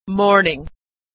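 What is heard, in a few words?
"morning", American English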